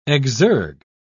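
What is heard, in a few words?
"exergue", British English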